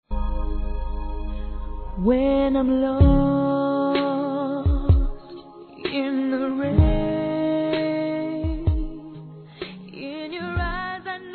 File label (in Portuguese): VOCÊ É MINHA FORÇA, Telemensagens, Dia dos Pais, fem; Telemensagens 2017